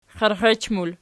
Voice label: xierxech pl, archi

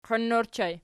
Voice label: "qoin", Archi

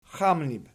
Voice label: "xamnib", Archi

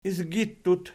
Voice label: "izgittut", Archi

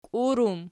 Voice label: "kiurum", archi

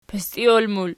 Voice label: "pistiol", Archi

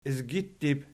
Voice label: "izgittib", Archi

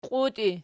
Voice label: "qiutii", Archi

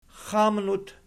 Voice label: "xamnut", Archi

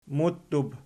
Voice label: "muttub", Archi

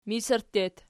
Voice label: "misirttu", Archi

